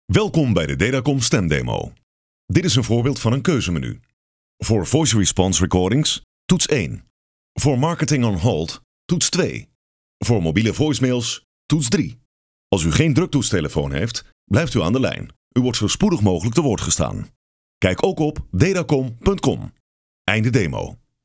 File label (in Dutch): Voice over Nederlandse man